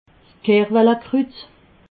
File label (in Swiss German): "kerwelskrut", alsace